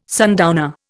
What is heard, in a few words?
"sundowner", Indian